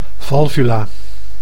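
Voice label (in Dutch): "valvula", Dutch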